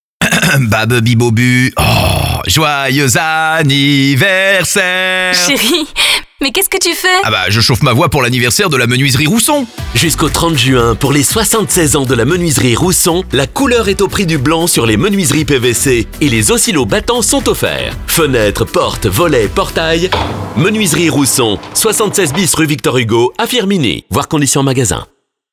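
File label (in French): PUB, comédienne voix-off; MENUISERIE ROUSSON ANNIVERSAIRE JUIN 2022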